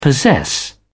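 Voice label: "possess", British English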